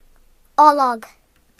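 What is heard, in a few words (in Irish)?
"olive", Irish; gcompris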